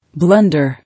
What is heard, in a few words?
"Blunder", English